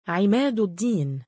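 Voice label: "3imaaduddiin" male, Arabic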